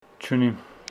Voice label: Homshetsi i dont have

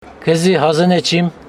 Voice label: Homshetsi i dont like you

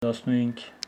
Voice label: Homshetsi fifteen

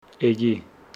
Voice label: Homshetsi i came

